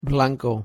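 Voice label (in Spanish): "blanco", Spanish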